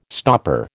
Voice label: "stopper", American

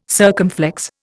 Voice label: "circumflex", Indian

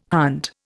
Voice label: "hunt", Indian

